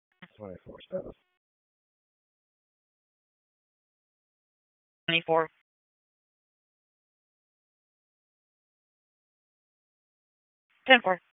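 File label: Police Dispatch, p25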